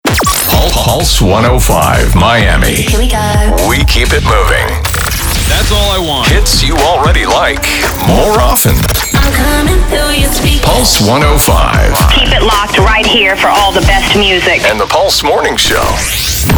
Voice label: HOT AC, Voiceover

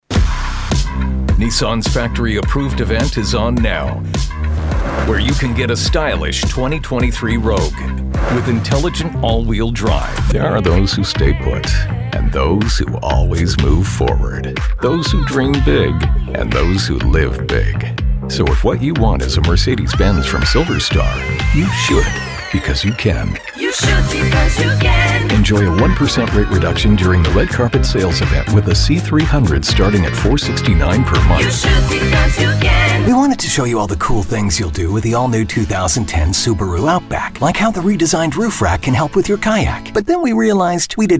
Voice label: Auto, Voiceover; voice artist; cinematic movie trailer

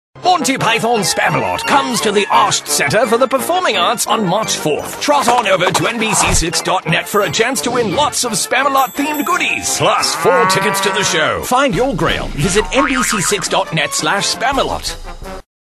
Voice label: BRITISH accent voiceover; SPAMALOT

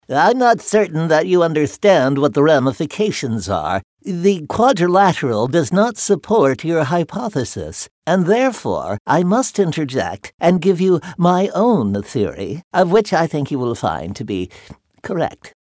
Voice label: nerdy voiceover

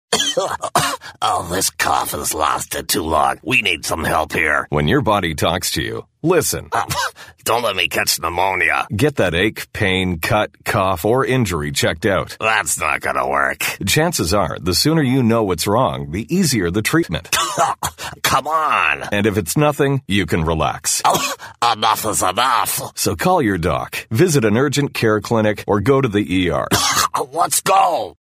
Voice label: COUGH Announcer voiceover

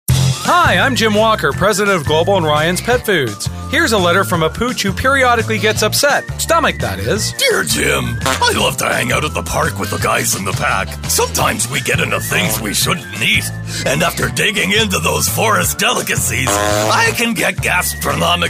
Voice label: KID dog voiceover; FARTY JOE

